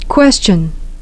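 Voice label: "question", English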